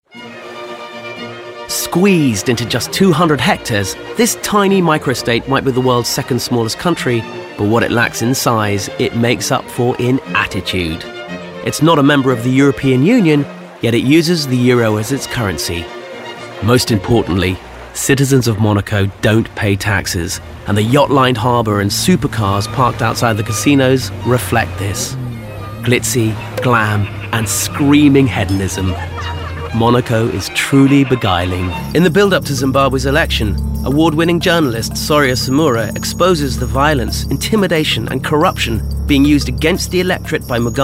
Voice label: Narrative